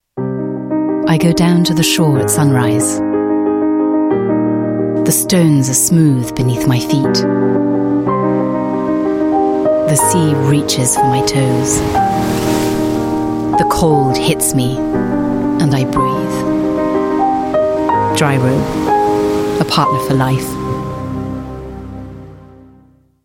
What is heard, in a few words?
UK English; Dryrobe